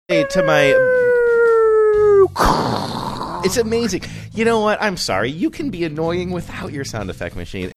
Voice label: imitating falling bomb saying its amazing you know what